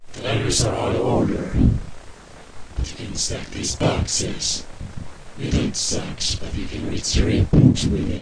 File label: library voice